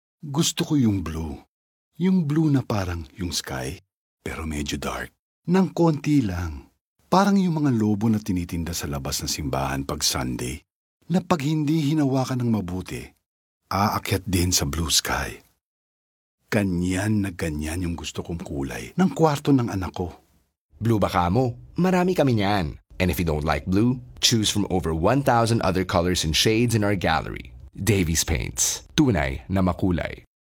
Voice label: Tagalog (Conversational)